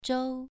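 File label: "zhou2", Chinese pronunciation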